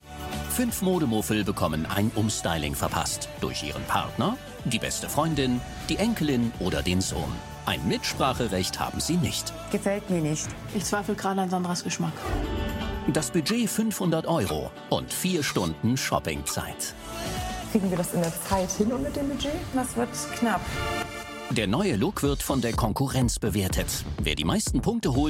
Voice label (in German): Doku; Neuer Look; LOFT VOICES